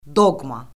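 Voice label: "doqma", Azerbaijani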